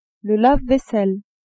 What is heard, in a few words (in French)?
"lave-vaisselle", French; cuisine